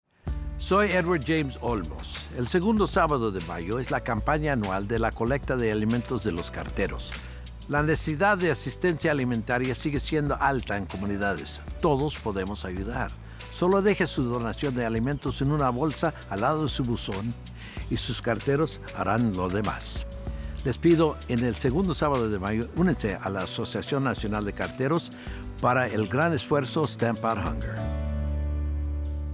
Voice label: Food Drive PSA Spanish; NALC